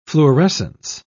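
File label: "fluorescence", British English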